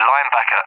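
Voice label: "Linebacker", English, UK; AirDefence; DCS; Allied Flight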